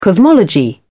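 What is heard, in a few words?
"cosmology", British English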